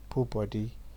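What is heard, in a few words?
"put body", Nigerian Pidgin, male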